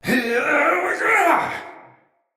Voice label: Attack Heavy, Battle, Barks, Dialog; Mendicant Wazard